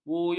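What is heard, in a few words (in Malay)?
"buyung", Malay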